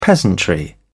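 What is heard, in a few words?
"peasantry", British English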